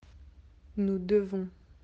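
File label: "devoir", French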